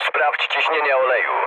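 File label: damaged oil, mood low, Polish speech; War Thunder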